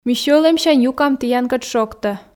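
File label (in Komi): ми сьӧлӧмсянь юкам тіянкӧд шогтӧ, Komi, female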